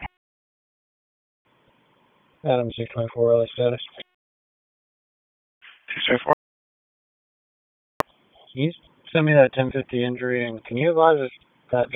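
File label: Police Dispatch, p25; Raleigh Police Southwest District Disp; wake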